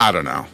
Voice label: i don't know, Voice expletives